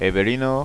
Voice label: "evelina", Occitan